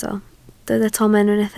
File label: "tomen", Welsh